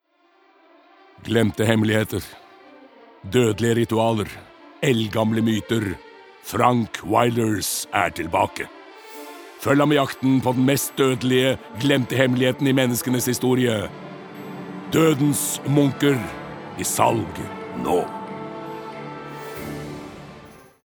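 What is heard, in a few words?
Norwegian movie trailer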